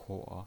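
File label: "kuo", Mandarin Chinese, third tone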